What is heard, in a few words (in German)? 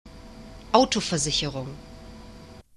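"autoversicherung", German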